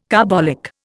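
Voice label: "carbolic", Indian English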